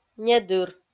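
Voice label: "недыр", Komi-Zyrian, female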